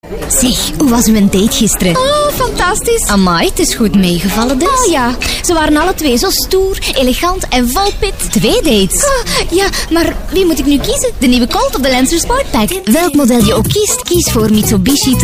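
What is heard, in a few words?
flemish female commercial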